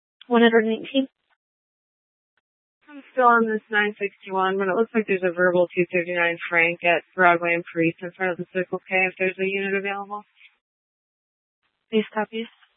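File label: Tempe Police Department, scanner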